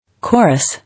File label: "Chorus", English